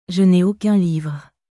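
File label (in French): Je n'ai aucun livre, French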